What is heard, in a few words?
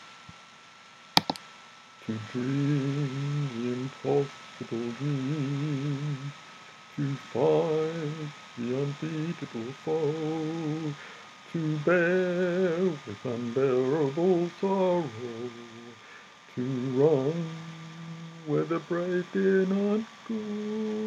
Male Voice